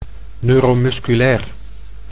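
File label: "neuromusculair", Dutch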